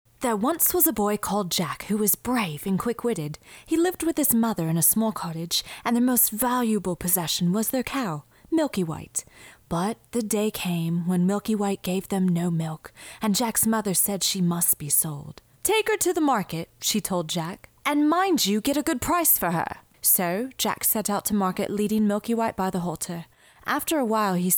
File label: Audiobook Children